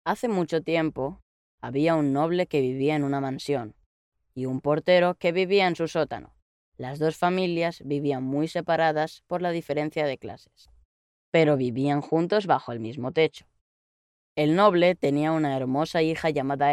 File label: locutor nino spanish child voiceover